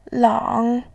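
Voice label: "lang3", Mandarin Chinese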